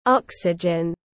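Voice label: "Oxygen", English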